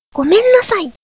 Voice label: chat